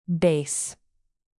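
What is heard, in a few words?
"bass", English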